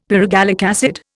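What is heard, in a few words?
"pyrogallic acid", Indian English; Dictionary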